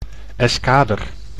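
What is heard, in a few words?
"eskader", Dutch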